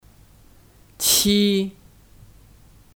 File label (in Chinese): "七", Qī